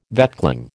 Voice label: "vetchling", American